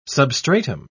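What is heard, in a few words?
"substratum", British English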